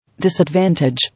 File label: "Disadvantage", English